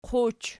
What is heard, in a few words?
"quch", Archi